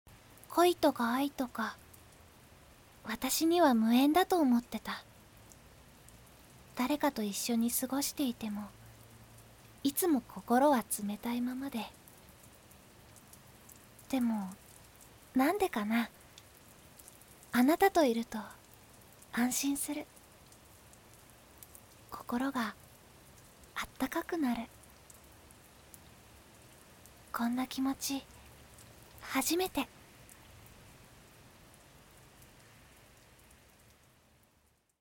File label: CV Girl